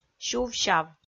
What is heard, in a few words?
"сюв-сяв", Komi